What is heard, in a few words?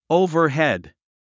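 "overhead", English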